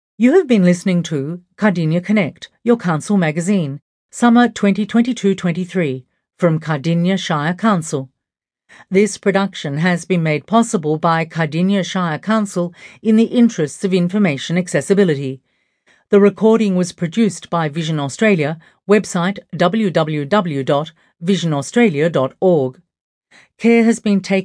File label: closing announcement